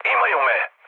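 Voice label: Serbian aircraft destroyed mood low, speech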